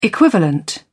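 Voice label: "equivalent", British English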